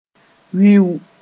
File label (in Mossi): "wiuu", Moore